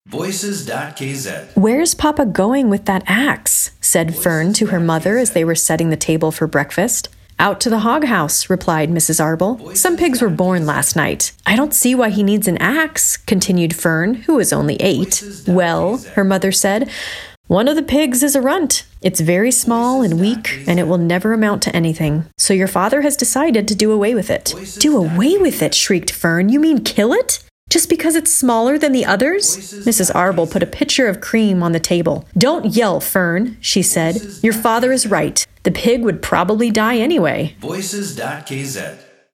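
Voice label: english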